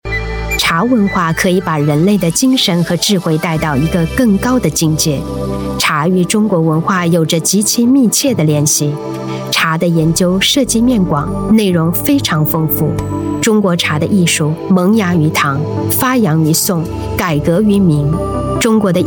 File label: chinese voice over female commercial